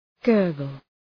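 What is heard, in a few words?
"gurgle", English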